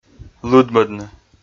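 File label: "лыдмӧдны", Komi, male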